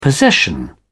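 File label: "possession", British English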